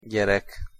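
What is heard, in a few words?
"gyerek", Hungarian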